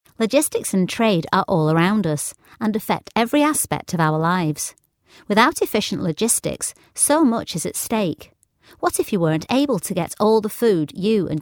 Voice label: northern